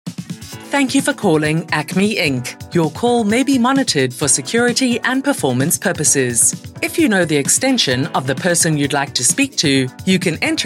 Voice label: SA Website VO; voice overs